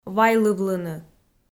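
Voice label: "вайлывлыны", Komi, female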